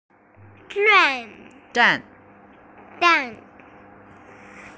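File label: "zhan4", Mandarin Chinese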